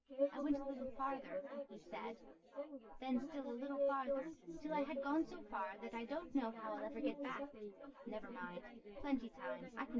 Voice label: female synth conv sim; SiSEC10; underdetermined